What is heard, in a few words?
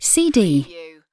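"CD", British female; lynne publishing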